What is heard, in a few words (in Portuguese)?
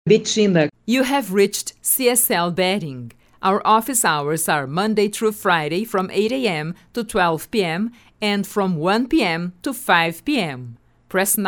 ingles